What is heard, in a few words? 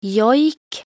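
"joik", Norwegian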